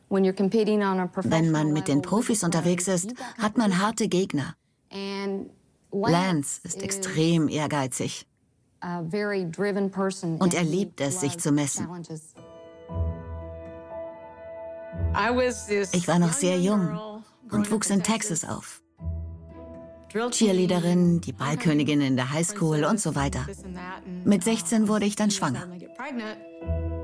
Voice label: Voice Over; Lance Armstrong